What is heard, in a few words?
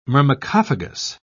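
"myrmecophagous", British English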